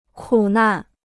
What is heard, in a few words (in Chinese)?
"苦难", Chinese